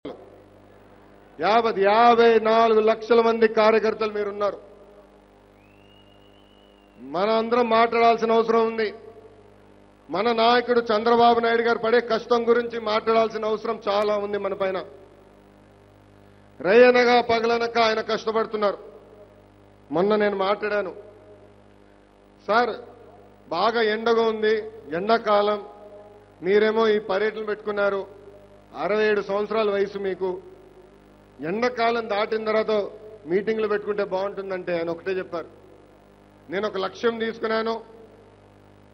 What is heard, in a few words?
sentimental speech